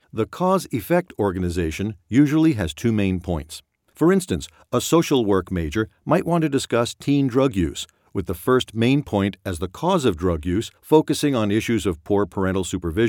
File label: Informative Speech